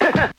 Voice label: "huh huh" funk drum; ooh ahh; heh huh